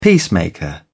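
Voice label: "peacemaker", British English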